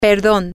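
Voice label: pardon me, Spanish, female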